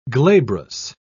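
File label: "glabrous", English, British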